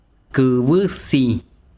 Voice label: besiro negro, chiquitano

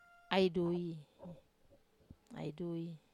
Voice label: "ai dui", wamesa

